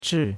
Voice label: "chi4", chinois; Cours de Chinois

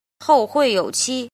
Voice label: "jin", Chinese

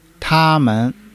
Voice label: "ta1 men", Chinese

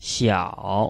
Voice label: "xiao3", Chinese

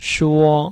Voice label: "shuo1", Chinese

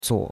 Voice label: "cuo3", chinois; Cours de Chinois

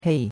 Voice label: "hei4", chinois; Cours-de-Chinois

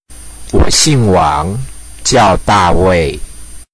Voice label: Mandarin Conversation

